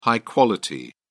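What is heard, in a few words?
"high quality", English, British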